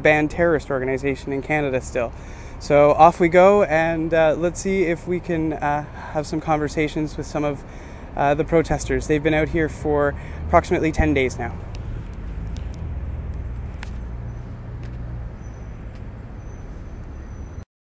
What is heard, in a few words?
Tamil voices on Ottawa streets